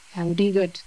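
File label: voice acting, es, female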